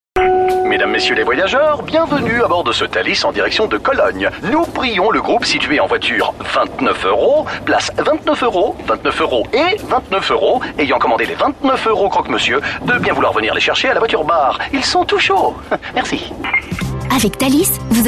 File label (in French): radio pub spot